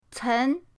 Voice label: "cen2", pinyin